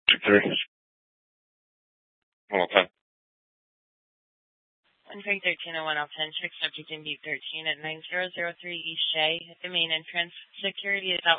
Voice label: Dispatch, scanner; Regional Wireless Cooperative (RWC) - Simulcast H- Scottsdale; Scottsdale PD

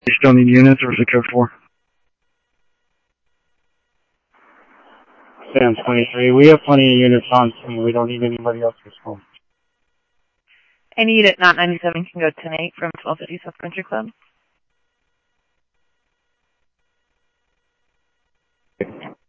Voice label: Mesa 1, scanner audio; Mesa Simulcast; Fiesta; MESA OPEN ALL

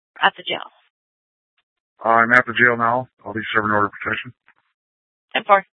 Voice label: Dispatch, scanner

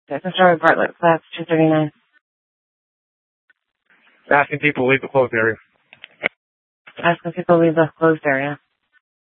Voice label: Sheriff, scanner P25

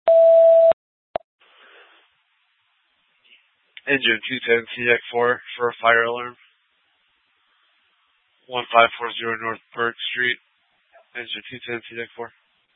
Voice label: FD Dpts VHF 156.240 Patch

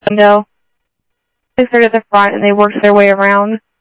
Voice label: Sheriff, scanner audio

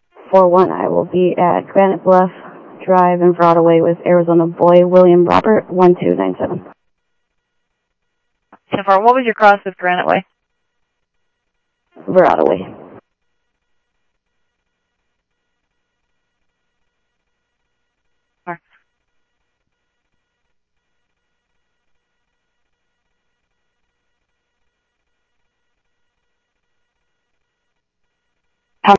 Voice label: Interop Mutual Aid, scanner audio

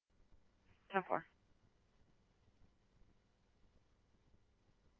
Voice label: Chandler Police, scanner

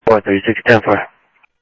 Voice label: scanner audio, Sheriff

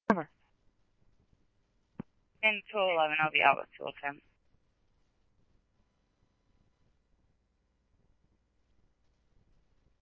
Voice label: scanner, Scottsdale Police

